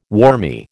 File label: "wormy", American